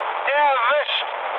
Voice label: player killed enemy, mood med, speech ge; War Thunder